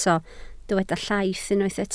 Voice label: "llaith", Welsh